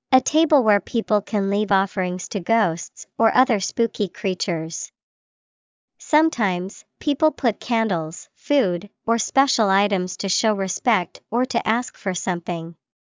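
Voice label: altar, English vocabulary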